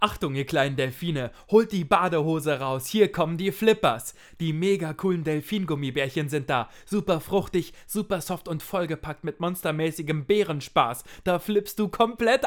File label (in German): Werbung